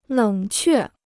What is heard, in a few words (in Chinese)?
"冷却", Chinese